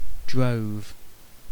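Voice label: "drove", British English; pronunciation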